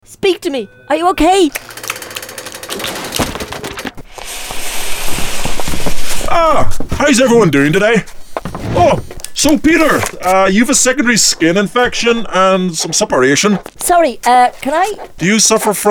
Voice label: english irish video games